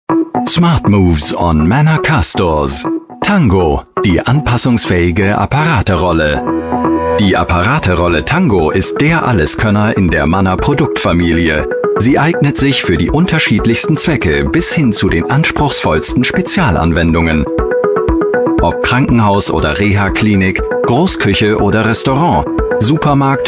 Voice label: german voice over male narrator